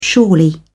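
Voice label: "surely", British English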